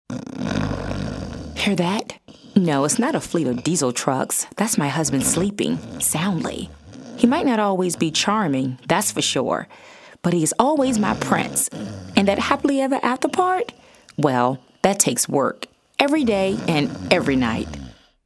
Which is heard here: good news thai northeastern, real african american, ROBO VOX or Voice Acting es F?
real african american